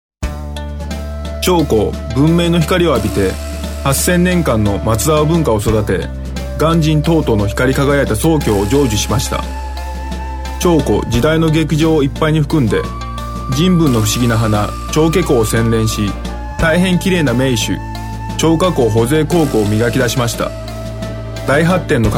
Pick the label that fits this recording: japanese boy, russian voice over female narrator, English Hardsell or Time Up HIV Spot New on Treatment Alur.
japanese boy